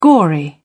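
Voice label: "gory", English, British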